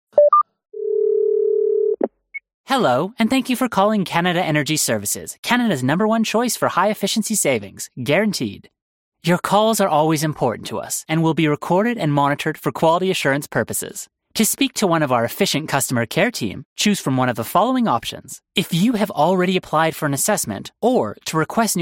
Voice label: english canadian ivr